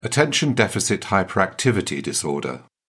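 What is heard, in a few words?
"attention deficit hyperactivity disorder", English, British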